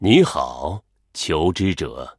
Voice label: GREETINGS, zh

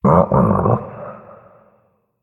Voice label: Male Murloc Oops; zh

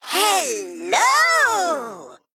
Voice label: Male Mech, HAPPY NOBLEGARDEN; AnnoyO

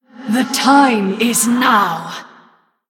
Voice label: Time, Female Human; en

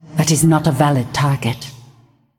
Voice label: Female Ghost; en

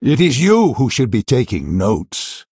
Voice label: Male Human Mirror Greetings; en

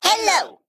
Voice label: Male Mech, ERROR NEED WEAPON; en; AnnoyO

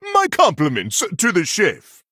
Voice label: Male Human Mirror Greetings; en

